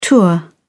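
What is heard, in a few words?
"tour of duty", British English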